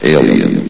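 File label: "alien", announcer voice; Source Engine; bshift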